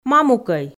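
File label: "мамукӧй", Komi, female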